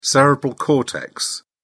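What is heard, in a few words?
"cerebral cortex", British English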